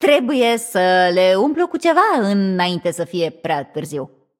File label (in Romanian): Room2 VO Hint Trigger, vo, ro-ro